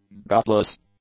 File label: "gutless", english